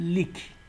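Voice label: "leak", Nigerian Pidgin, male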